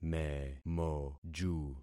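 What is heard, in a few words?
"memoju", concatenated syllables; Affixing experiment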